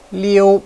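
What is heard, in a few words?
"liu1", Chinese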